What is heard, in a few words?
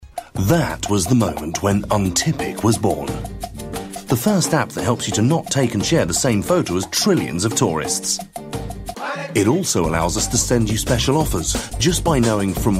male british english app comercial